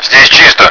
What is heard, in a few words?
clear, radio; svencoop; paranoia; kulak